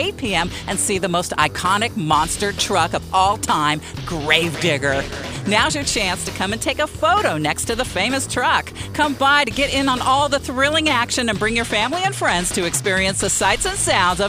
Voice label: Preview Spot; Grossmont Center Monster Jam; 105.7